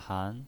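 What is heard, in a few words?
"han", Mandarin Chinese, second tone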